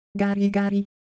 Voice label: Japanese Sound, ideophones